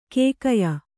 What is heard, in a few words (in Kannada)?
"ಕಗ್ಗಾಯಿ", Kannada